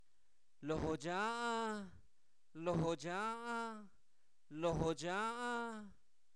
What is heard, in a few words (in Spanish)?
altar, Zapoteco, santo domingo albarradas